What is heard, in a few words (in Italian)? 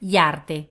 Yards, Italian, female